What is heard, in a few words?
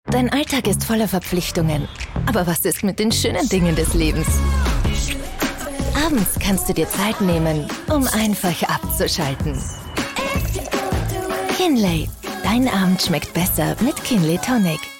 TV Spot; Kinley